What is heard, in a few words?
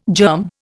"germ", Indian